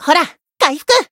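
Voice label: heal, Japanese; rajah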